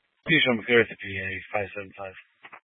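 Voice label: Troop 2A Dispatch, scanner audio recording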